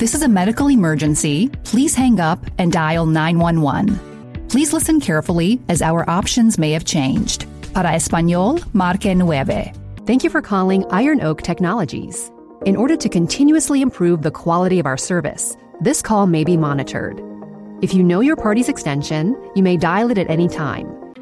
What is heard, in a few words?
On-Hold and IVR